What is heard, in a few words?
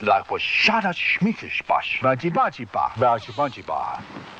laddish smeekish ba bashi bashi bah, gibbrish; Voice